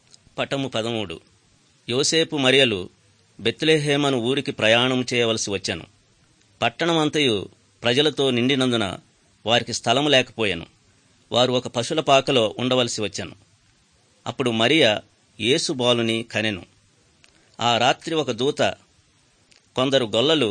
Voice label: good news telugu

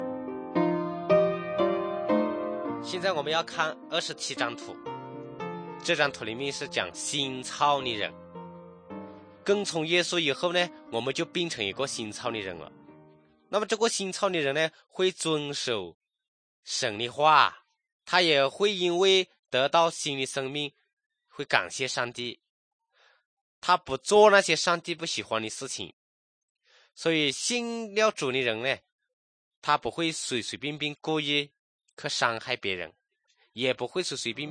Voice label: good news shan, drama

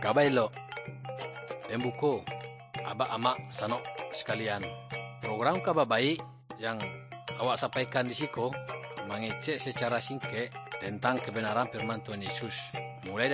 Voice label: good news minangkabau muko muko; kaba ilo

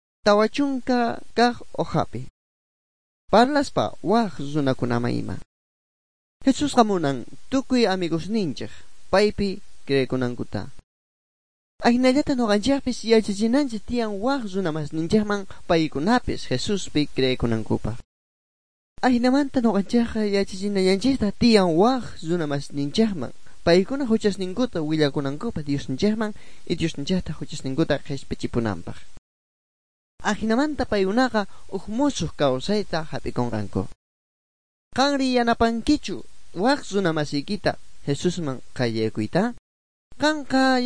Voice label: good news quechua bolivian sucre; bible

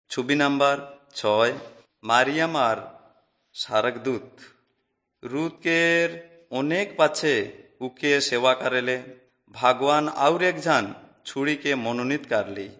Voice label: servants of god urao, kurukh drama

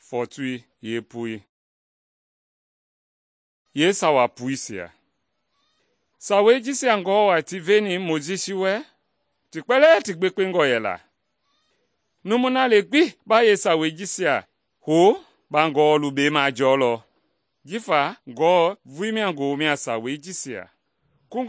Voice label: mende bsl nt drama; good news mende kpa

